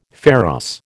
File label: "pharos", American